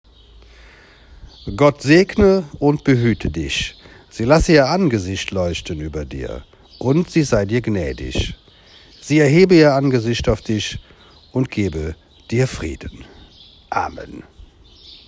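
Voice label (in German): deutsch rheinländisch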